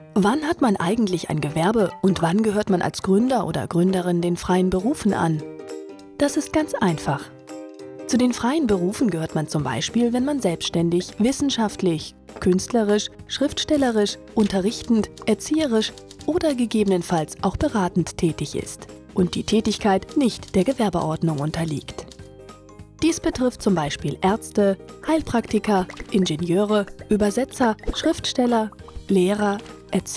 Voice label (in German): Erklaerfilm Animation